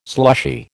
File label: "slushy", American